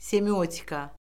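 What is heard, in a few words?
"semiotika", Azerbaijani; Orfoqrafiya Lüğəti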